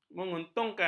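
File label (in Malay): "menguntungkan", Malay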